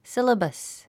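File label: "syllabus", English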